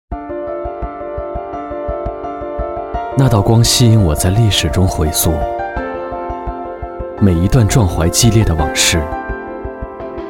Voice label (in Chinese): 男 独白 致敬